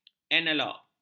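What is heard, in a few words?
"analog", Malay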